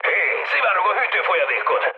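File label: Hungarian damaged water mood med, speech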